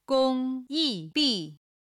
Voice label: "gong1yi4bi4", chinese